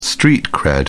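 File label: "street cred", English, British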